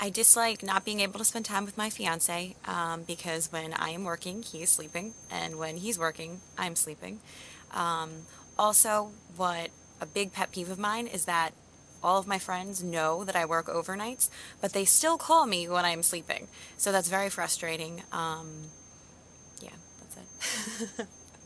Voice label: adult female engaged producer on downside of working overnights